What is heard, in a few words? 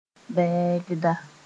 "bɛɛgda", Mooré; offensive